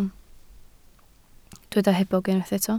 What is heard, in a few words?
"hebog", Welsh